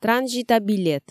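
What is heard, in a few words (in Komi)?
транзита билет, Komi, female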